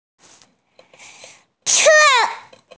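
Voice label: "ci4", Mandarin Chinese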